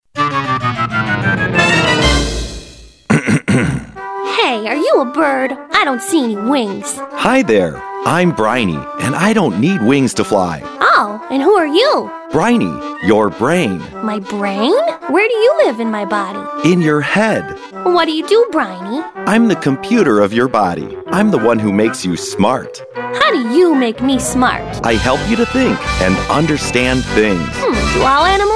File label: character